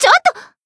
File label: Voice damaged jp